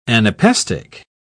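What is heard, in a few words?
"anapaestic", British English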